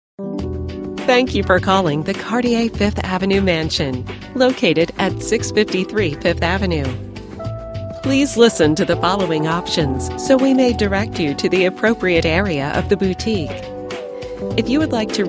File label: ivr